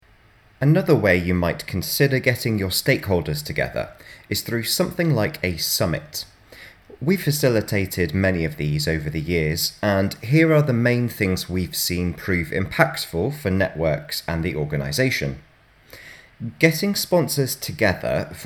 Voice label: Narration; Radius